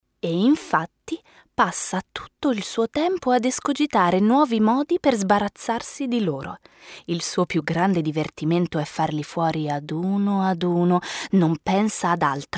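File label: Narration, Italian; Attenti Alle Streghe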